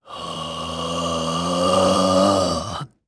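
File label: Vox Casting Japanese; Lusikiel; kings raid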